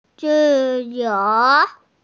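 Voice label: "zi4you2", Mandarin Chinese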